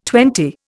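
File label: "twenty", Indian English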